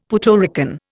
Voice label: "Puerto Rican", Indian; Dictionary

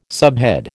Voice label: "subhead", American

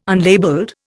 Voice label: "unlabelled", Indian

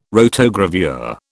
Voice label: "rotogravure", British

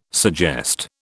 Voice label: "suggest", British

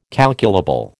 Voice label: "calculable", American

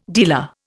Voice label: "dealer", Indian

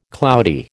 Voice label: "cloudy", American

